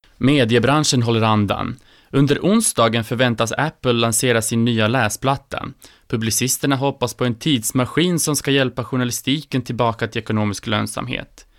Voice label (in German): Schweden M